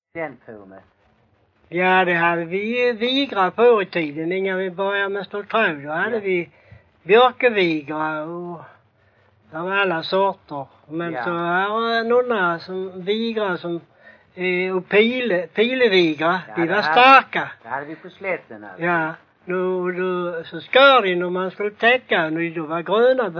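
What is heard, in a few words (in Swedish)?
Bosjökloster Skåne, Svenska sagor dialekter; Folkminnen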